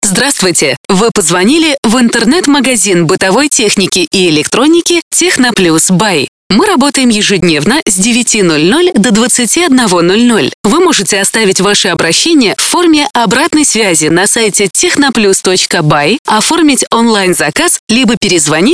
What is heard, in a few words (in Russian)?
IVR В Нерабочее Время; ТехноПлюс